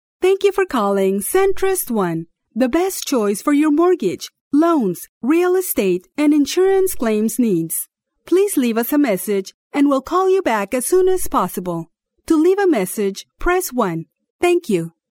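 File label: Spanish Latin America female English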